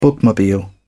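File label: "bookmobile", British English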